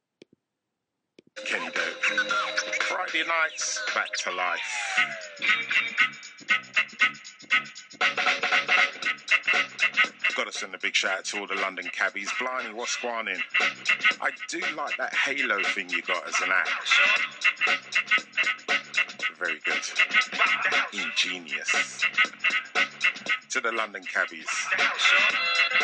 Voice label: shout out on BBC London